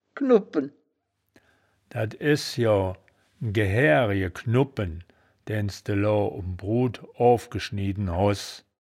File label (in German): "knubbpen", wittlich